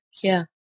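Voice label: "kieh1", Proto-Indo-European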